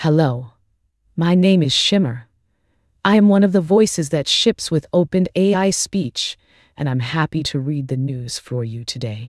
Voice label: news, speech; dtp-newsroom; shimmer